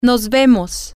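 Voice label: well see you, spanish, female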